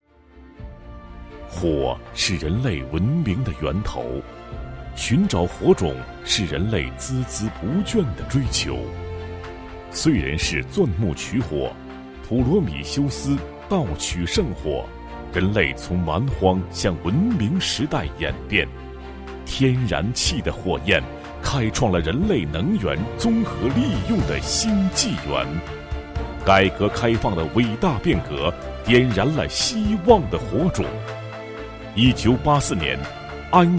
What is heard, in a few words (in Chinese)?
男 专题 燃气公司, 国语男声配音; 安阳